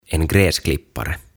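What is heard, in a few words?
"En gräsklippare", Swedish, "Ruohonleikkuri", Finnish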